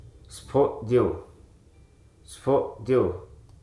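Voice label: "jumbo", English, Hruso dictionary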